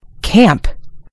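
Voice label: "camp", American English; gcompris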